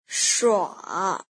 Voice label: "shua3", pinyin